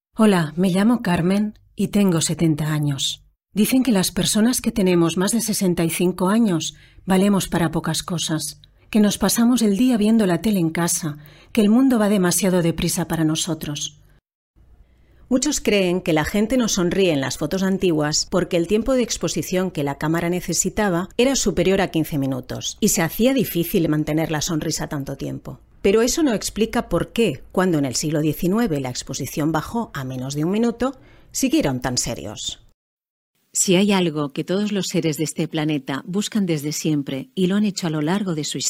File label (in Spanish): corporativo castellano